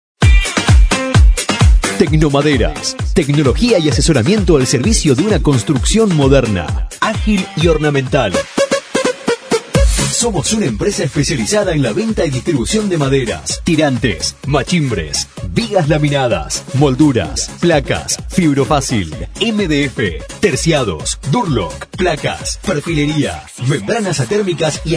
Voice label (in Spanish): publicidad